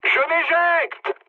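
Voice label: French bailout mood high; War Thunder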